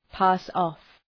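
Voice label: "pass off", English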